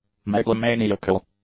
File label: "megalomaniacal", english; adjectives